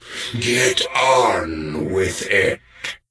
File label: NPC Death Knight Male Med Greeting